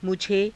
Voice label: "mujhe", Hindi

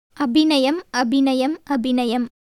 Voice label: Tamil

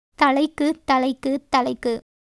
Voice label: Tamil

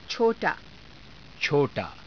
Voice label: "cho taa", hindi

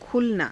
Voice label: "khulanaa", Hindi; खुलना; [自動]開く

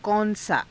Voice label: kaun saa, Hindi

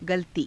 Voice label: "galatii", Hindi

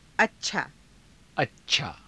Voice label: "acchaa", Hindi; अच्छा; 良い/く; [形]良い/く

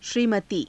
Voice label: "shriimatii", Hindi; श्रीमती; 夫人

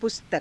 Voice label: "pustaka", Hindi; [女]本; पुस्तक; ＝ किताब

